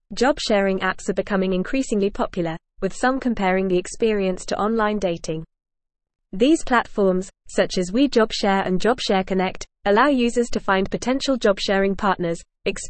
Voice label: Advanced FAST Reading, English; English Newsroom